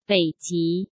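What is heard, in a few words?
"北极", Mandarin Chinese; hsk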